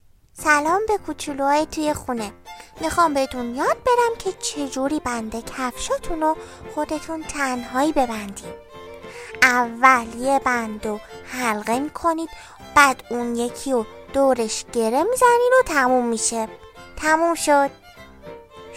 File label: narrator